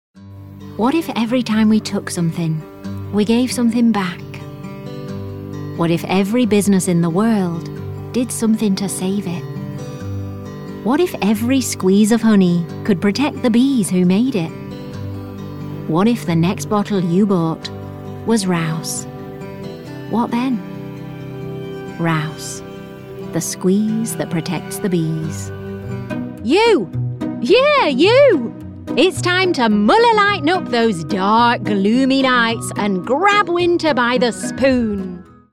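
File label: English, UK, female, commercial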